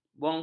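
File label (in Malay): "bongkok", Malay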